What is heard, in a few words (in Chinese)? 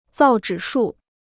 "造纸术", Chinese